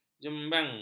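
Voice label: "jembeng", Malay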